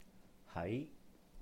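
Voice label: "hái", Cantonese; be located at; 喺; hai2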